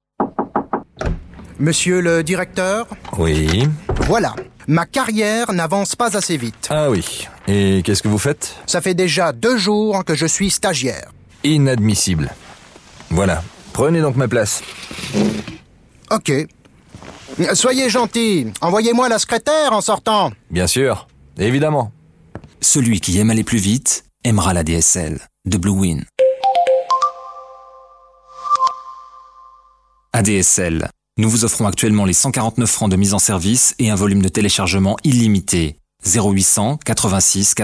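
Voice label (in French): spot, spots radio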